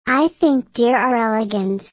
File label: low; Animal friends